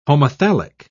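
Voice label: "homothallic", British English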